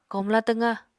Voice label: "komla twqá", Apatani